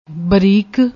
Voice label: "bareek", Punjabi